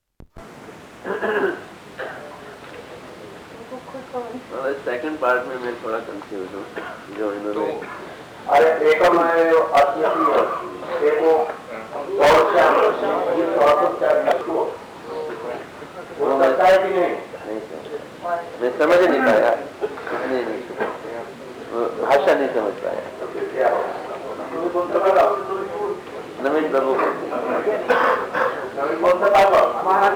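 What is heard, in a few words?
Hindi English Translation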